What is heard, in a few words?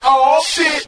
"aw shit" group of men; Voice; expletives